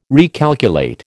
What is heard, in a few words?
"recalculate", American